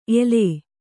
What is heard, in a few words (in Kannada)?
"ಎಲೇ", Kannada